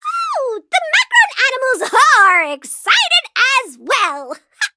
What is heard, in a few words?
levelup en; cookie run